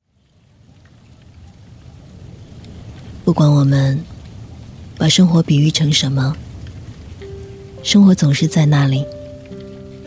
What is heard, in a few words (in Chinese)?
女 走心旁白; 《谜一样-落笔才心安》